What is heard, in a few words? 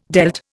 "dealt", Indian